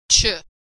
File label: sound ch